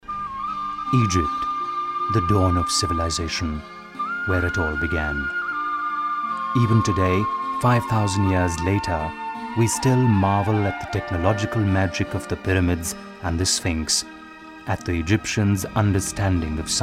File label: English Docu, male voice